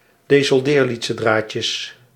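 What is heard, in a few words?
"desoldeerlitzedraadjes", Dutch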